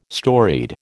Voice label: "storied", American

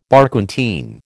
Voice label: "Barquentine", American

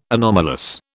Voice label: "anomalous", British

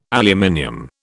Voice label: "aluminium", British

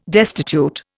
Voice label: "destitute", Indian

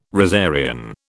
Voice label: "rosarian", British

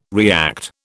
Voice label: "react", British

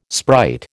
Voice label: "sprite", American

